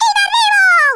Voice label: INCOMING, Speech, Italian; Worms Armageddon

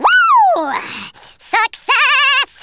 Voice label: "excellent", wacky speech

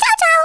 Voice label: BYE BYE, Speech, Italian; Worms Armageddon

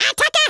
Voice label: "FIREBALL", German; Worms Armageddon

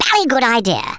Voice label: "yes sir", speech; Worms Armageddon

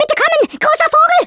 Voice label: ORDERS, Speech, German; Worms Armageddon

